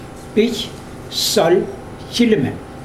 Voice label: three black pigs, mokilese

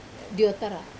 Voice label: "otara", kick, Wamesa

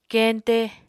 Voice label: "keñte", Apatani

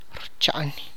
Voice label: "he warms", tlacochahuaya

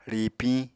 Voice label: "ríipiñ", Apatani

